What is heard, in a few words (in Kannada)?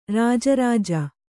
"ರಾಜರಾಜ", Kannada